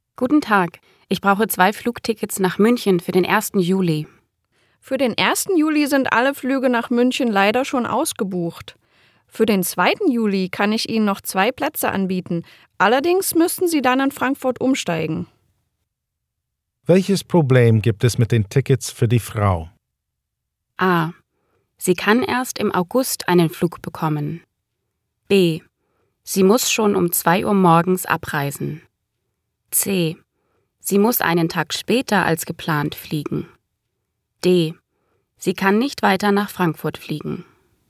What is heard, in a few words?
german sample item; clep